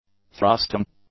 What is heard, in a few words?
"thrusting", english; nouns